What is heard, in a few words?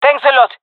thankful, jungle fem; vo; agents; Source Model Packs; Counter Strike Model Pack; CS2; jungle fem epic